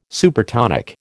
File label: "Supertonic", American